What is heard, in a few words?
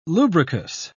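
"lubricous", British English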